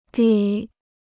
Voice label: "得", Chinese

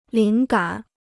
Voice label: "灵感", Chinese

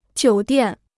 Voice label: "酒店", Chinese

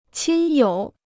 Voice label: "亲友", Chinese

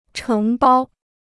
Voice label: "承包", Chinese

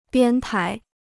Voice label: "编排", Chinese

